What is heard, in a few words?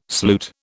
"sloot", British